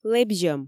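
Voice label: "лэбзьӧм", Komi, female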